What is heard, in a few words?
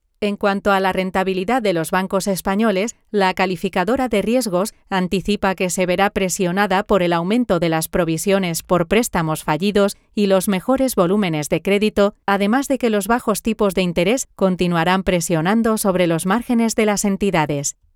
spanish